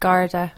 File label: "Garda", Irish